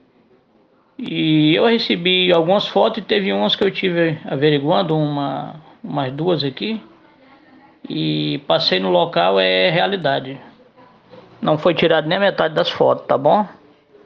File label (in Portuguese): Voz presidente de bairro